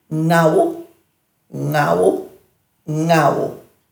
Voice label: "ngawu", Dhurga

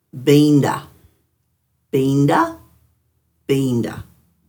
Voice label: "biinda-", Dhurga

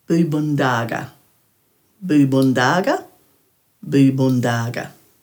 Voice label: "buubundhaaga", Dhurga

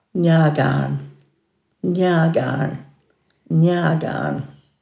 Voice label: "njaagarn", Dhurga

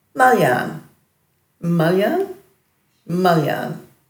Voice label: "malyan", Dhurga

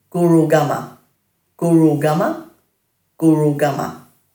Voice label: "gurugama", Dhurga

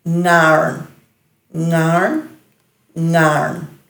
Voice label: "ngarn", Dhurga

